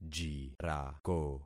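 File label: "jirako", concatenated syllables; Affixing experiment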